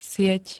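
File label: "sieť", Slovak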